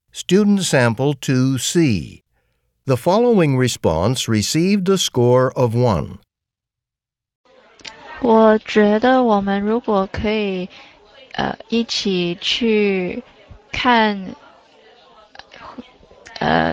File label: chinese conversation